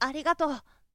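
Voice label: "arigato", boy; shonen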